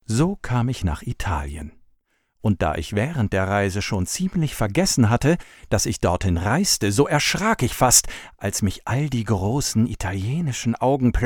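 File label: audiobook, deutsch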